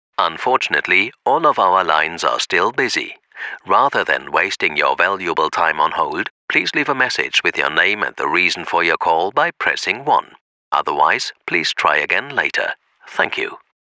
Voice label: telefonansage ENG